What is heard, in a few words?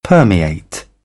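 "permeate", British English; AmericanBritish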